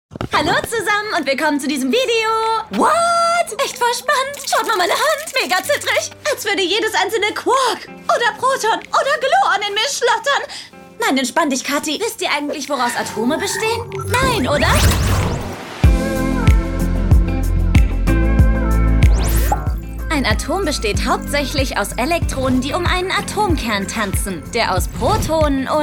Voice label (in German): Fremdtake Aufgeregt